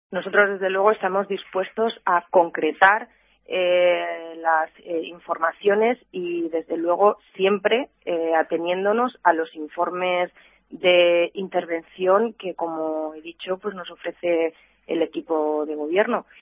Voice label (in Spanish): noticias